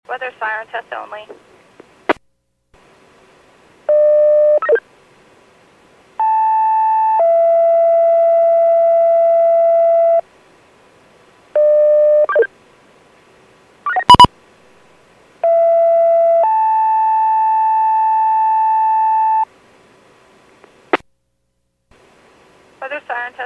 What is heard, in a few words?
PAGING